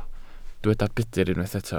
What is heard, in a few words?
"budr", Welsh